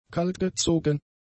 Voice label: "kaltgezogen", German; adjectives

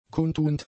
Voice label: "kundtuend", German; adjectives

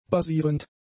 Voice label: "basierend", German; adjectives

